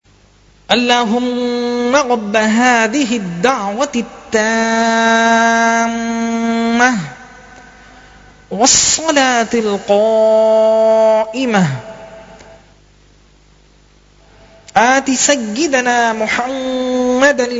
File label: azan dua, Arabic